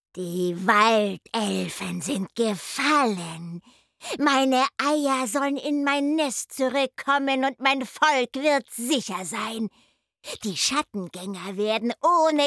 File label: RPG Creature Medium; Computerspiel